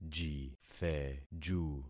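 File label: "jitheju", concatenated syllables; Affixing experiment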